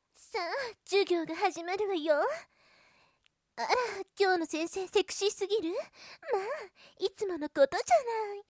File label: sexy girl hard